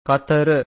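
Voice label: "katara", Pali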